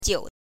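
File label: "jiu", Mandarin Chinese, neutral tone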